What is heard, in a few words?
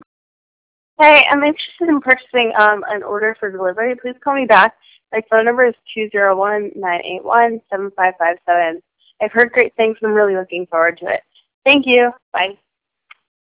pizza voicemail